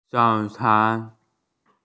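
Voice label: "breakfast", Mandarin; zao3can1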